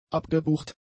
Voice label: "abgebucht", German; adjectives